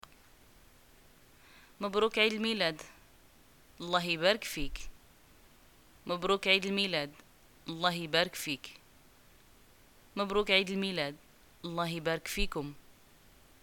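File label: "greeting happy birthday", Moroccan Arabic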